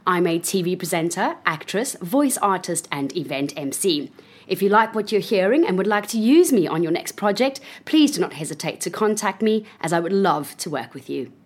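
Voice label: English South African F Narration, accent of south africa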